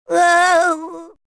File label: elf scared; ea tsto